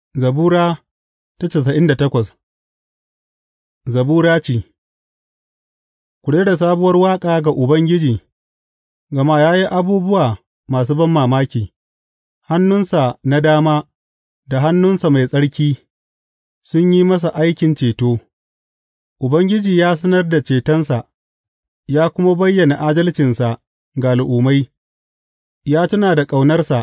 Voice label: hausa bible drama, psalms; hausa bsn nt drama